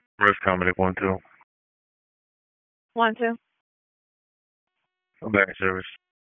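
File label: EMS DISP, radio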